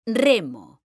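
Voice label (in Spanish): "REMO", Spanish; mekanta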